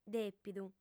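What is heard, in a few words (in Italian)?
"dèpidu", lingua sarda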